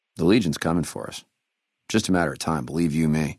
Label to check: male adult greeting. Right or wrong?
Right